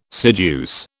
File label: "seduce", British